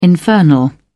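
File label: "infernal", British English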